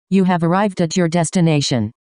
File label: Siri You Have Arrived At Your Destination